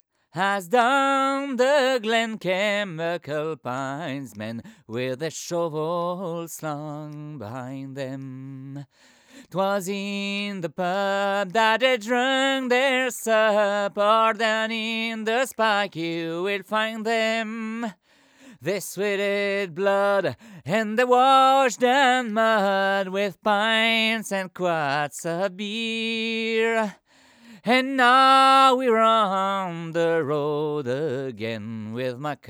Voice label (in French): voix c414 tube leveler; cakewalk sonar8